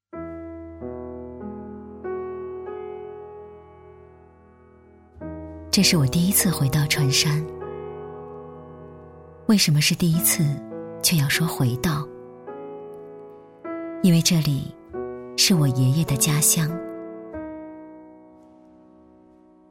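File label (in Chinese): 国语 女声 旁白 诗词朗诵 自然讲述 走心伤感; 回忆家乡；积极向上|调性走心|亲切甜美|感人煽情|素人; 小诗; 伤感的女孩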